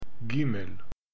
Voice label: "gimel", Hebrew

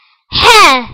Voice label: hhe, tigrinya, alphabet sounds

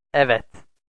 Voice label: "evet", turkish

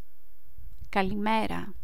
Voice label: "kalimera", greek

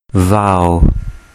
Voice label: "vaao", urdu

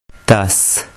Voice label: "dus", Urdu

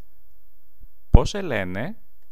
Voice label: "pos se lene", greek

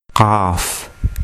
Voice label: "qaaf", urdu

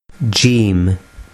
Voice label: "jeem", urdu

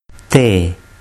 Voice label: "te", urdu

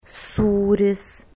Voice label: "suris", lithuanian

